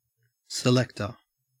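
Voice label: "selector", Australian English; pronunciations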